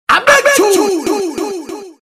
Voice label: vocal A Big Tune (Scare Dem) echo; Sample ROLAND